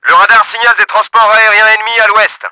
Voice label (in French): COMMANDO WAVE APPROACHING, french; Battle Engine Aquila